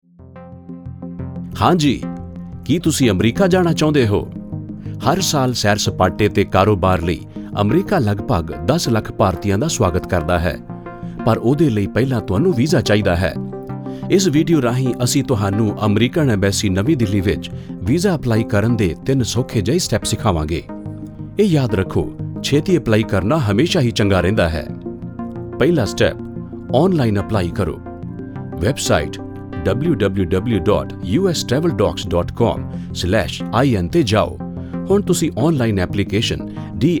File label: explainer punjabi